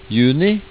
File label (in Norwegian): "juni" Norwegian